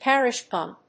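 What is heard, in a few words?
"parish-pump", English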